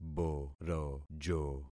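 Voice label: "borojo", concatenated syllables; Affixing experiment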